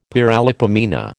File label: "paralipomena", American